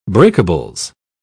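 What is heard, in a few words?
"breakables", British English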